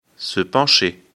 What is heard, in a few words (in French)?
"pencher", lecture fr; afrikalan